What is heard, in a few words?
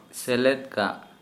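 Santali male in addition to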